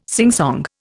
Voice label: "singsong", Indian